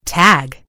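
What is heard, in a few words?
"tag", British English; gcompris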